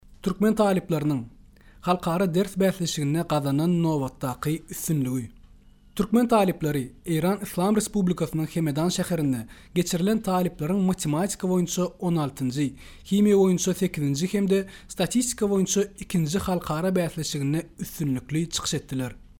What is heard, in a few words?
Turkmen Male